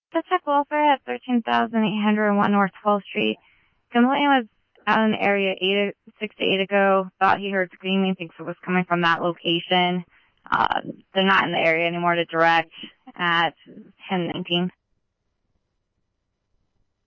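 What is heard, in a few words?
scanner, Phoenix Police Department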